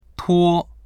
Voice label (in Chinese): "tuo1", voices